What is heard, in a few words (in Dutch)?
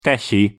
"tesi", Nias; thee-in-andere-talen